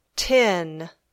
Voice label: "tin", English